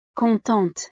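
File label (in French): "contente", French; estados animo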